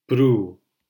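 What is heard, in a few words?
"pru", Spanish syllable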